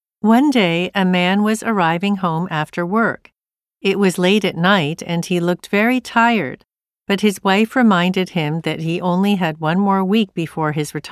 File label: Speaking Model Narration